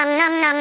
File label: eating nom nom nom; roblox